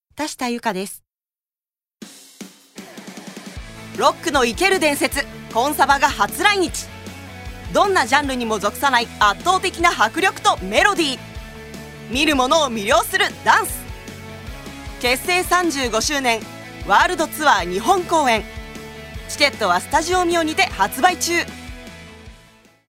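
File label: commercial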